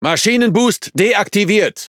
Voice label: Speech Consumable Forsage Normal, DE; World Of Warships